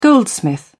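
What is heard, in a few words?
"goldsmith", British English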